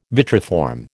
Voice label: "vitriform", American

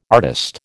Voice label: "artiste", American

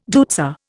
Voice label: "deutzia", Indian

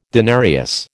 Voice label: "denarius", American

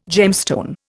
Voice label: "gemstone", Indian

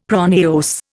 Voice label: "pronaos", Indian; Dictionary

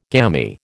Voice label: "gammy", American

